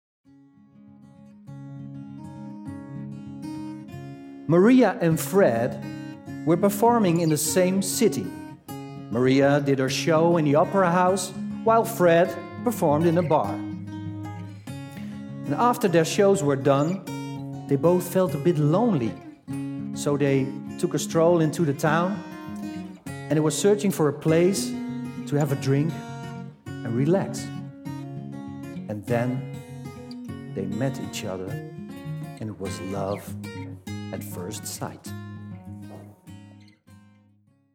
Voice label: Storyteller; Cantine Opera Rocks